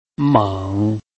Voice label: "meng3", Chinese

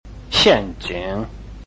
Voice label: "xian4jing3", Chinese

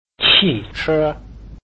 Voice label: "qi4 che1", Chinese

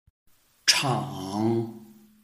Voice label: "chang3", Chinese

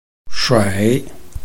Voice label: "shui3", Chinese

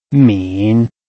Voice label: "min3", Chinese

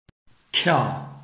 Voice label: "tiao4", Chinese

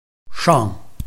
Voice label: "shang4", Chinese

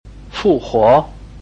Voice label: "fu4 huo2", Chinese

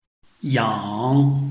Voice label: "yang3", Chinese

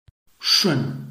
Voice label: "shun4", Chinese

